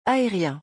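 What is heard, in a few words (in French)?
"aerien", French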